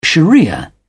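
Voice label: "sharia", British English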